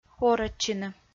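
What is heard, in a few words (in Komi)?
"орӧдчыны", Komi, female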